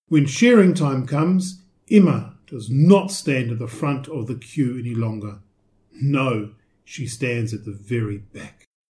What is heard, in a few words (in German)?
englisch